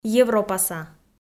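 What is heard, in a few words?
"европаса", Komi, female; kpv audio dict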